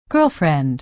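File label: "girlfriend", American English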